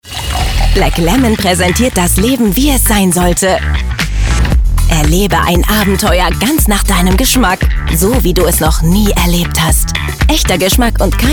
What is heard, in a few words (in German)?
Werbung jugendlich dynamisch Stimmprobe, German; Werbe-Demo: jugendlich, dynamisch; voice; Black Lemon